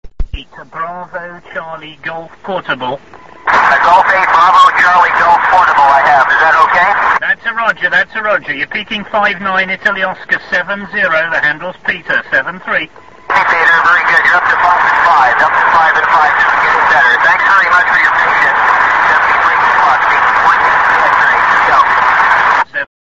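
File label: amateur radio contact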